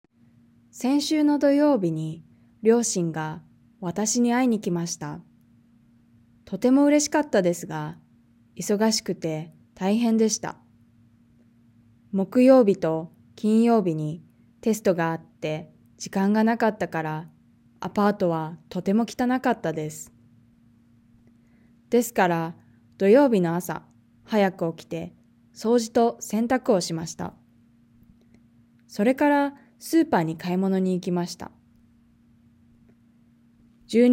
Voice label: Reading natural; Nakama; OJAD